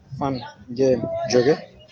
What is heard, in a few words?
where from, wolof